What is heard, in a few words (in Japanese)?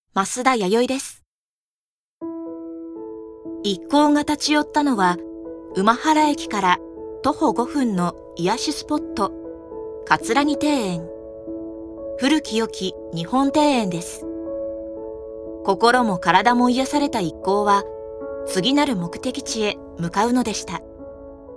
ノーマル